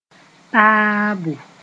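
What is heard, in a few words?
"taabo", Mooré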